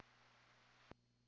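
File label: P25 dispatch radio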